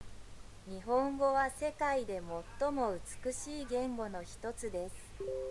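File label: Japanese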